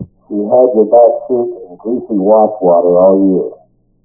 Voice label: laser vibrometer, Recorded Speech; VisualMic; TIMIT mccs0 sa1